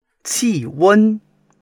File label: "qi4 wen1", Chinese